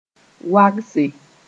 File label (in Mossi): "wagse", Mooré; rival